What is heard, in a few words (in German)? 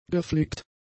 "geflickt", German; adjectives